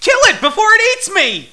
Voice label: fear

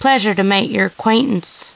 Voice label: follow, woman

